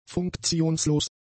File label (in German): "funktionslos", German; adjectives